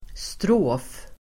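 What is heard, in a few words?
"strof", Swedish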